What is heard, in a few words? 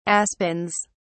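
"aspens", English, British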